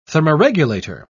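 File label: "thermoregulator", British English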